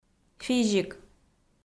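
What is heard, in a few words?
"физик", Komi, female; kpv audio dict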